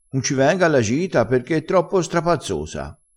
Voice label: "strapazzoso", crespinese

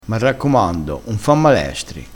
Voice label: "malestro", crespinese

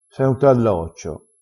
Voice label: "talloccio", crespinese

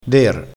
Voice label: "der", crespinese

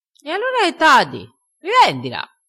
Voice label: "rivendila", crespinese

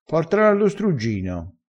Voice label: "struggino", crespinese

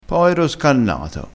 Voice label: "poero scannato", crespinese, vocabolario dialettale

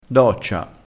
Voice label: "doccia", crespinese, vocabolario dialettale

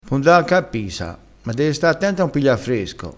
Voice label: "dee", crespinese

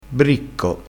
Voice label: "bricco", vocabolario dialettale

